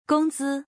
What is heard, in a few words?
"gongzi", Chinese